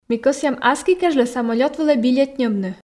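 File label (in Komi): ми кӧсъям аски кежлӧ самолёт вылӧ билет ньӧбны, Komi, female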